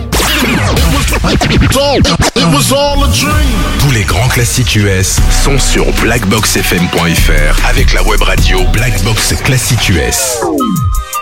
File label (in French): Habillage TV Radio FR